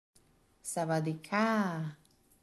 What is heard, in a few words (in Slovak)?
"dobry den" dievca